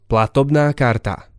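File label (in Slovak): "platobna karta", Slovak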